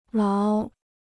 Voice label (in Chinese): "牢", Chinese